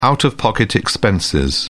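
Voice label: "out of pocket", British English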